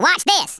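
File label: "watch this" spoken, Redneck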